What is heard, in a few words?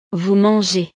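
"vous mangez", French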